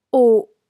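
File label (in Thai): "อุ", Thai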